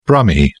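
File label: "brummie", English, British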